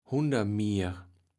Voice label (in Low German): "Hundermier", kaevels platt